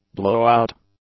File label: "blowout", English; nouns